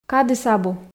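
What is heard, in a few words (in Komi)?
кадыс абу, Komi, female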